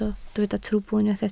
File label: "trwbl", Welsh